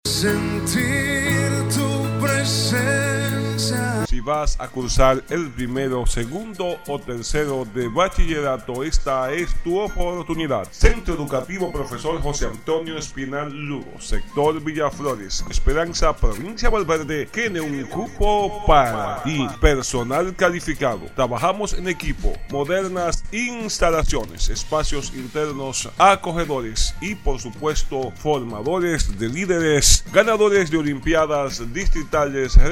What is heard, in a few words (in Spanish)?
comercial inscripcion; cepjael